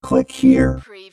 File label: Robot voice "click here"; lynne publishing